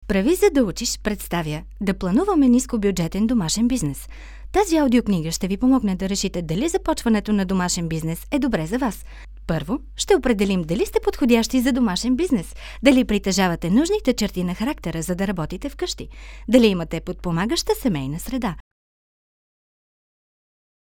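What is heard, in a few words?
bulgarian female character; voice demos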